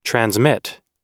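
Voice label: "transmit", English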